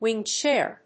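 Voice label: "wing chair", English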